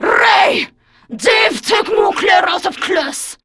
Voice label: Patrol, Grineer Female; Warframe; Battle Barks